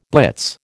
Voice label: "blitz", American